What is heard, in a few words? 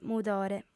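"mudore", female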